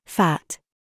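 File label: TRAP, words, British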